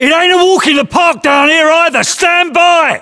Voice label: voiceovers UK; ModernWarfare; localized english; Cargoship

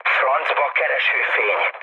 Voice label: searchlite, mood low, speech hun; War Thunder

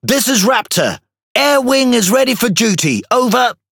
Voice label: Pirate; chat planes; World Of Warships

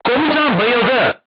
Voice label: voice message commander contused, turkish

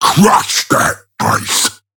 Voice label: Ork Quick Commands Enemy Base; Warhammer 40000

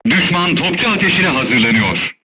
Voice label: voice message art shooting warning, turkish

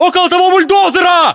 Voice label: landmark near bulldozer, ru; localized english

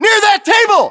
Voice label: landmark near table, US

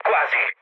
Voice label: bomb failure mood low, speech it; War Thunder

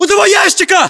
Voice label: landmark near crate, battlechatter ru; localized english

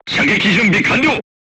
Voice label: voice message charged, crew tanks japanese

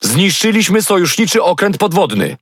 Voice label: Speech Destroy Ally Sub, PL; World Of Warships

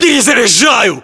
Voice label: inform reloading generic, battlechatter, ru; localized english

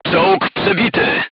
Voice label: voice message player tank hit, polish

